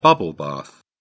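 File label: "bubble bath", English, British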